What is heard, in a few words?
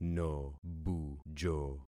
"nobujo", concat syllables; Affixing experiment